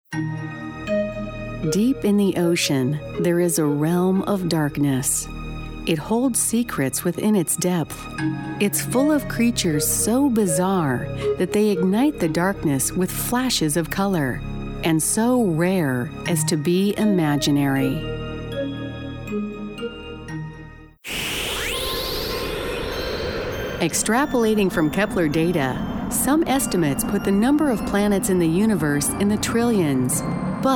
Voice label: Narration, Female